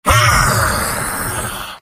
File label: jeers; engineer; video games; source engine; tf; mvm